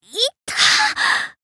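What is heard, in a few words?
damaged, Japanese; saki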